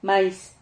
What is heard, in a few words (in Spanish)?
"mais", Silabas